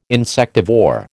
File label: "insectivore", American